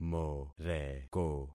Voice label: "moreko", concat syllables; Affixing experiment